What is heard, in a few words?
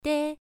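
"dei" Mandarin, first tone, pronunciation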